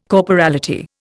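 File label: "corporality", Indian English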